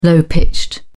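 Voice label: "low-pitched", British English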